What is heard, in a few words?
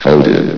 "coded", vox; Source Engine; bshift